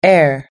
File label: "air", US English